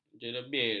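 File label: "jeleber", Malay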